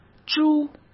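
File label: "猪", Mandarin Chinese; chinese flashcards; hsk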